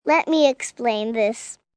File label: Let Me Explain This, 1 girl, 5 yrs